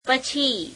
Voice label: "after", gujarati; પછી; pechheī